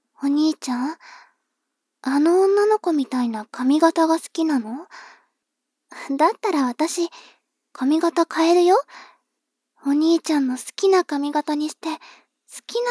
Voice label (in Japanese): 妹 台詞